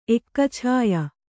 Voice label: "ಎಕ್ಕಛಾಯ", Kannada; ekkachāya; noun

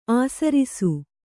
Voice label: "ಆಸರಿಸು", Kannada

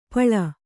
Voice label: "ಪೇಲ್", Kannada

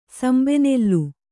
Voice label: "ಸಂಬೆನೆಲ್ಲು", Kannada

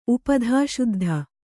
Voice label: "ಉಪಧಾಶುದ್ಧ", Kannada

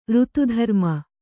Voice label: "ರಥದ್ರುಮ", Kannada

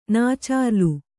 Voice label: "ನಾಚಾರ್ಲು", Kannada

